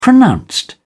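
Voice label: "pronounced", British English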